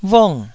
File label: "vung4", taiwanese Syllables